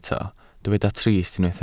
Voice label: "trist", Welsh